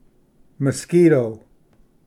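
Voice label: "mosquito", English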